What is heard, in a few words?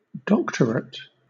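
"doctorate", British English; pronunciations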